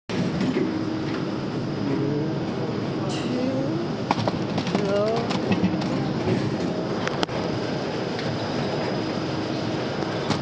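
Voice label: "zu qiu", Mandarin Chinese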